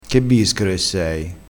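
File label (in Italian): "bischero", dialettale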